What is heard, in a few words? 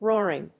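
"roaring", English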